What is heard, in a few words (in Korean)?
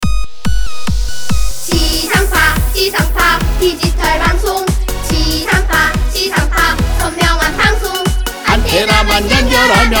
라디오 공익광고